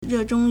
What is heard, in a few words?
"re4 zhong1", Chinese